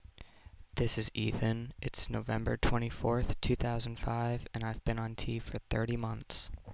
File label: 30 Months, testosterone, voiceclips; transition